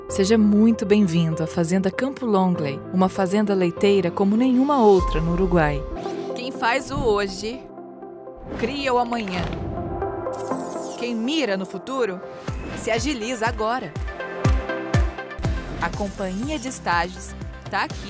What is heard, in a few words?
portuguese brazilian corporate videos